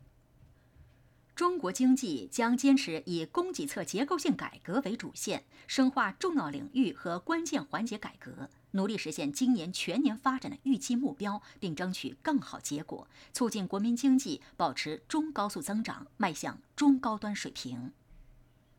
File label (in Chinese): 新闻配音